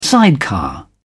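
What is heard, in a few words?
"sidecar", British English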